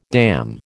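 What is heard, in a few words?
"damn", American English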